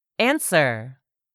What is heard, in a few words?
"answer", english; 3000 words